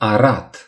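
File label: "plow", Romanian; gcompris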